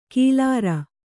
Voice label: "ಕಿಲಾರ", Kannada